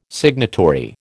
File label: "signatory", American